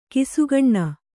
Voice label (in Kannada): "ಘಸಕ್ಕನೆ", Kannada